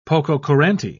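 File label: "pococurante", British English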